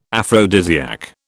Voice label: "aphrodisiac", British

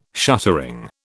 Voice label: "shuttering", British

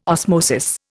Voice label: "osmosis", Indian

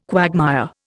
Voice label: "quagmire", Indian

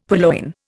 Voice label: "purloin", Indian; Dictionary

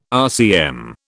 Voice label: "RCM", British

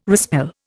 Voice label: "respell", Indian

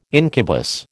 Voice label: "incubus", American

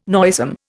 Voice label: "noisome", Indian

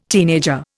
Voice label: "teenager", Indian

